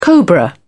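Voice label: "cobra", British English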